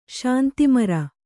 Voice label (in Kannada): "ಶಾಂತಿಮರ", Kannada